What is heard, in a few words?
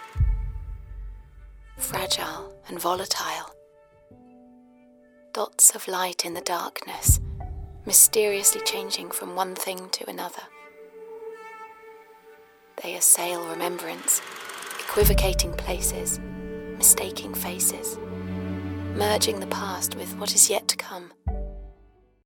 short film reflective nostalgic